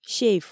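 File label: "сейф", Komi, female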